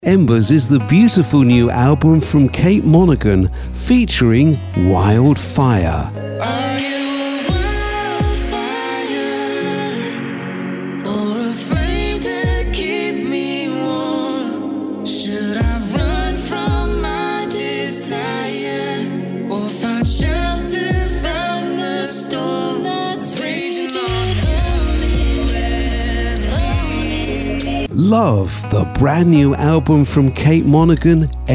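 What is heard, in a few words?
radio commercial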